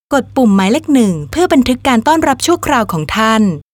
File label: thai Record Temporary Greeting